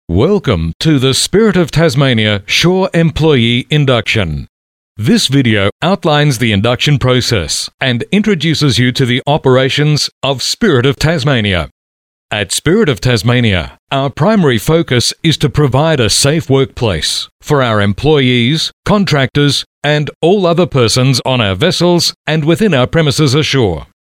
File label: male talent corporate